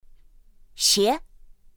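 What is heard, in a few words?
"xié", Mandarin Chinese pinyin; pinyin2; pinyinji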